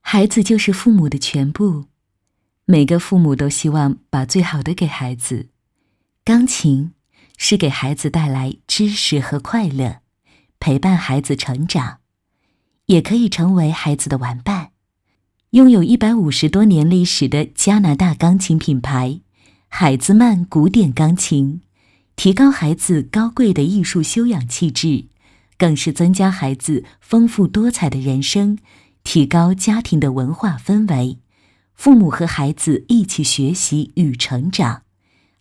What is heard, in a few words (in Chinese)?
女, 旁白, 钢琴玩具画外音